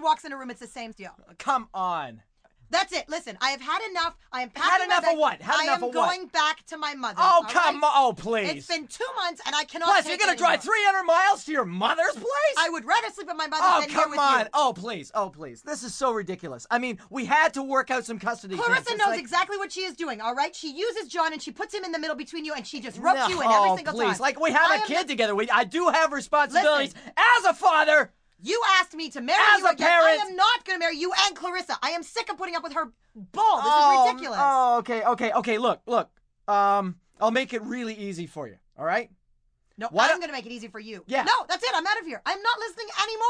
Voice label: Argument Couple, Male & female having an argument - jealousy (human voice); Talking Sound Effects